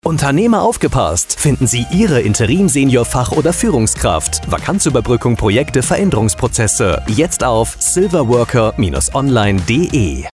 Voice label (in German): Funkspot; Silverworker Interim Manager